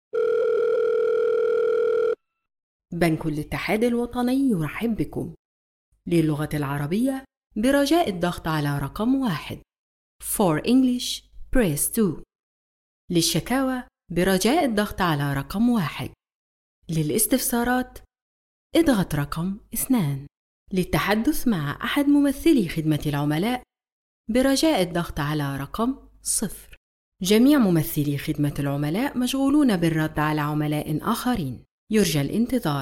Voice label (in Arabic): IVR بنك